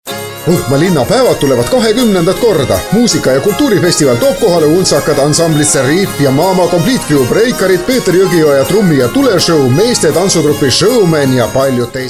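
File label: Estonian, male, commercial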